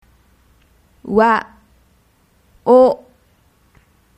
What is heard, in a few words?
wawo, Japanese